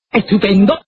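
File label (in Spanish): "estupendo", Spanish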